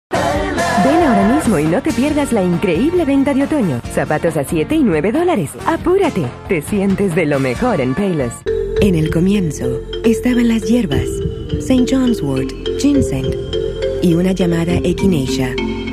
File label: languages spanish